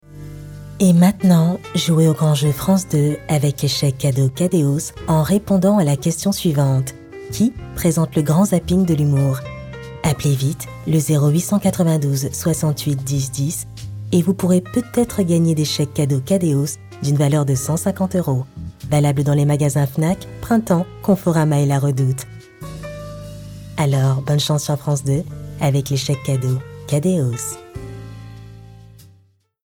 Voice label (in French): bande annonce tv posé classe convainquant; KADEOS